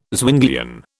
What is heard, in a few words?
"Zwinglian", British